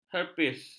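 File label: "herpes", Malay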